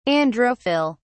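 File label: "androphyll", British English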